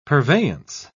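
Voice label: "purveyance", British English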